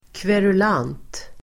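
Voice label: "kverulant", Swedish; kverulant substantiv, grumbler; Uttal: [kverul'an:t]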